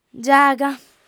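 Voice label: "giaga", Sardinian, female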